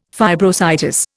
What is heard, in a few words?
"fibrositis", Indian